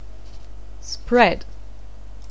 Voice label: "spread", anglais